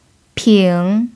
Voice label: "ping3", pinyin